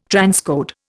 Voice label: "transcode", Indian